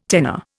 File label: "tenor", Indian